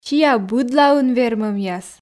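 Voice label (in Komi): "сиа быдлаын вермӧмъяс", Komi, female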